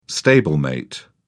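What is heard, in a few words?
"stablemate", British English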